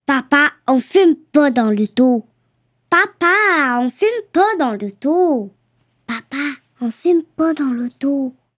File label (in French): Demo vocal